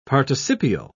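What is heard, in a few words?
"participial", British English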